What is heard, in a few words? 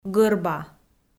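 "гӧрба", Komi, female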